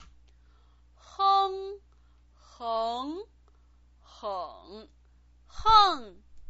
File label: "heng", Chinese pinyin